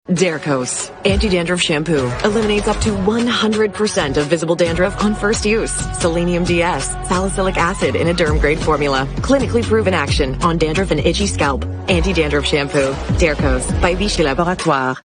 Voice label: female english canadian neutral north american accent commercial; vichy